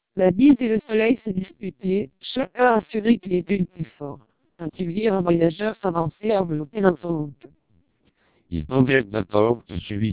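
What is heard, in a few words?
twelp 300 French short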